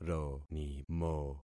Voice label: "ronimo", concat syllables; Affixing experiment